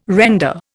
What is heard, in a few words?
"render", Indian